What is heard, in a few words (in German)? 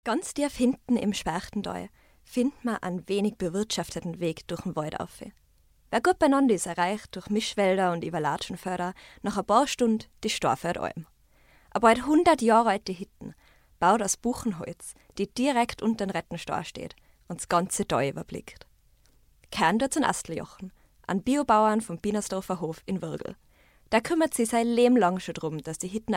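österreichisch Dokumentation Alm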